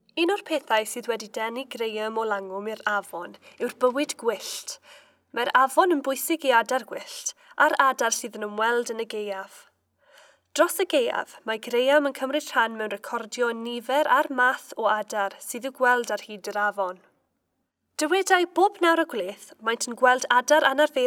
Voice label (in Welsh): Cymraeg wildlife birds